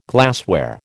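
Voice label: "glassware", American English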